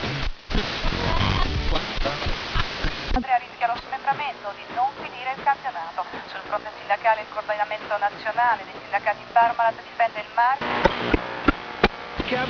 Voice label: italian radio, ambient chatter; Counter Strike Model Pack; CS:S

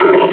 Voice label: pain; combine charger; Half Life Alyx

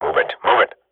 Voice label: radio bot go, swat; Counter Strike Model Pack; CS:GO

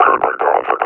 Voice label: announce attack cover, officer; Half Life Alyx; Half Life Model Pack

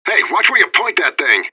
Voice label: radio bot under fire friendly; fbihrt

